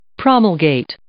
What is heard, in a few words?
"promulgate", English